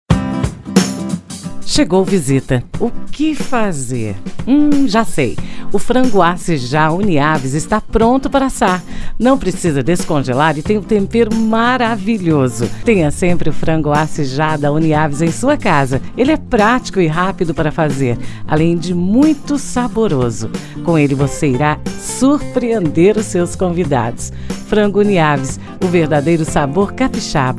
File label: portuguese brazilian commercial